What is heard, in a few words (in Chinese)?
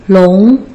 "long2", Putonghua